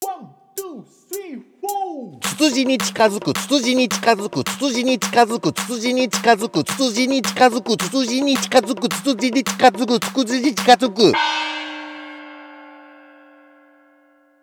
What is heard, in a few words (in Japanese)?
早口言葉リズム（中級編）